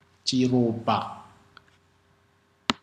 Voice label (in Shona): liver, Ndau